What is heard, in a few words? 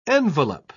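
"envelop", English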